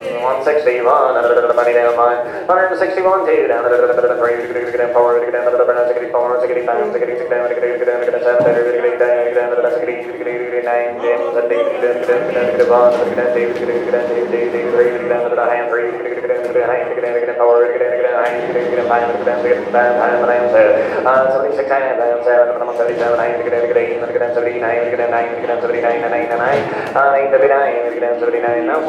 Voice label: public places cattle sale auction auctioneer microphone missouri usa; felix blume